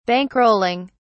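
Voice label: "bankrolling", British English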